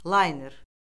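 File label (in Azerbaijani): "layner", Azerbaijani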